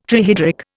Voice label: "trihydric", Indian